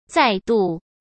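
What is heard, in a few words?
"再度", Mandarin Chinese; hsk; chinese flashcards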